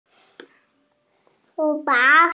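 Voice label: "chu fang" Chinese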